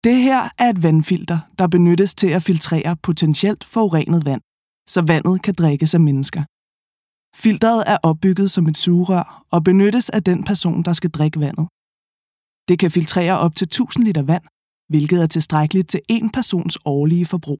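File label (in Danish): Danish Female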